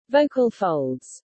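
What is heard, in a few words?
"vocal folds", English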